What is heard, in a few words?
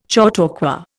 "chautauqua", Indian